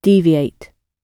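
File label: "deviate", British English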